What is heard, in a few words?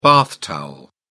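"bath towel", British English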